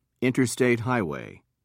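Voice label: "interstate highway", British English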